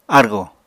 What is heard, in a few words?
"argò", Apatani